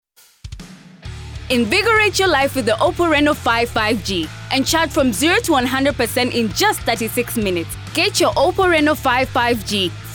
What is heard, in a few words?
Hard Sell